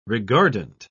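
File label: "regardant", British English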